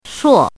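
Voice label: "shuo4", Mandarin pinyin syllable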